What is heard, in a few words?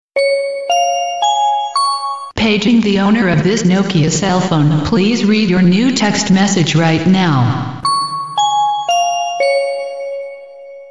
Airport Message